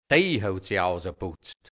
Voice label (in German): "de(i) hout si(c)h außaputzt", hianzisch Mundart, Burgenland; ausaputzn